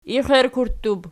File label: "ixittub", Archi